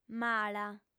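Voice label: "mala", female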